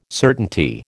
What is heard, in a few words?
"certainty", American